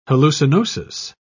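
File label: "hallucinosis", British English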